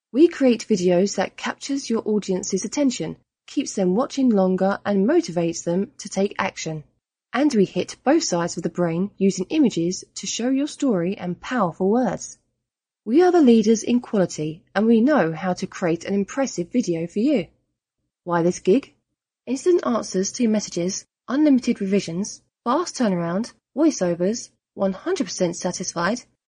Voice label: 英式女声

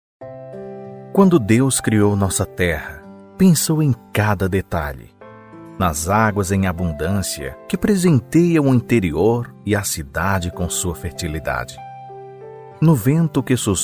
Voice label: 葡萄牙男声

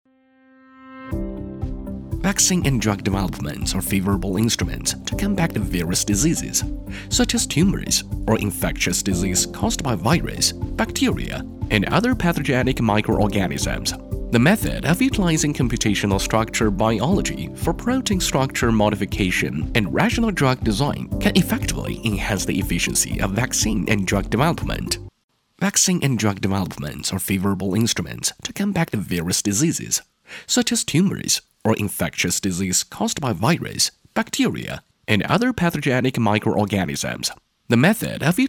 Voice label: 男 英语配音【年轻】【课件】; 中英双语 年轻时尚

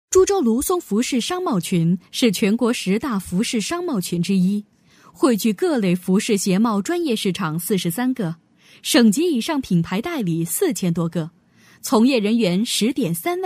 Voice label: 女-专题配音【大气稳重】; 沉稳大气

